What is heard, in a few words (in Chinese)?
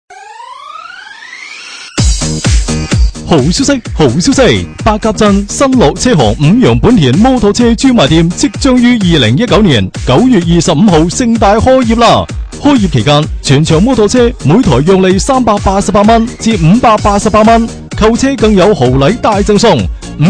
男 粤语; 新乐车行